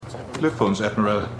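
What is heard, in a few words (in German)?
beglückwünschender Mann; Stimmproben; For All Mankind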